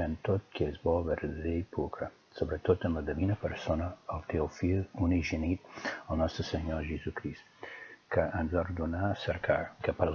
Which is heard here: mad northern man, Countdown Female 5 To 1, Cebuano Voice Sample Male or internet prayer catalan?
internet prayer catalan